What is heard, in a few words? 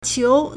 "qiu2", Chinese